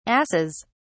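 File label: "arses", British English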